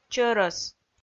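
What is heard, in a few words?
"чӧрӧс", Komi, female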